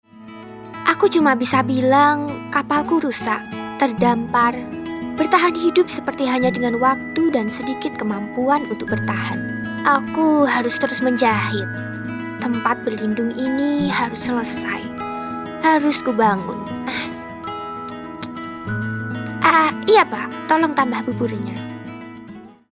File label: INDO CHARACTER